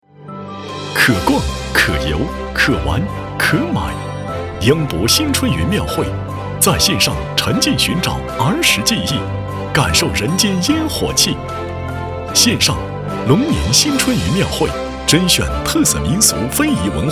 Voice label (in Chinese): 男, 活力激情类; 新春云庙会